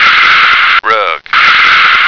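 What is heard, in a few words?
"rug", American English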